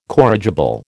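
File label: "corrigible", American English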